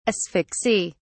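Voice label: "asphyxy", English, British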